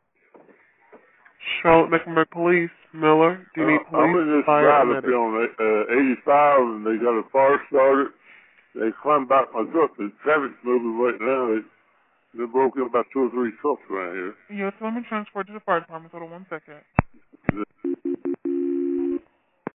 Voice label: Trucker 911 Call; Protesters Shut Down I-85, Break Into Tractor Trailers; CMPD